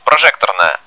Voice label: projector room, bot; cstrike